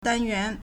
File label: "dan1 yuan2", Chinese